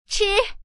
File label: "chow", woman